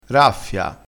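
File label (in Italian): "raffia", dialettale, crespinese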